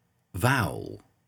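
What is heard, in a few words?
"vowel", English pronunciation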